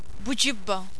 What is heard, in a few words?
bugibba, Maltesisch